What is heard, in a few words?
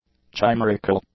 "chimerical", English; adjectives